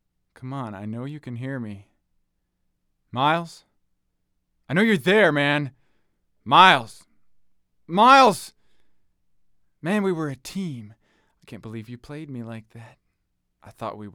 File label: Phone Call; Broken Road ADR